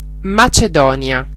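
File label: "macedonia", Italian; geography; gcompris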